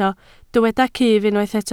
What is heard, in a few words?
"cyff", Welsh